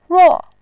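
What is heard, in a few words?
"ruo", Mandarin Chinese, fourth tone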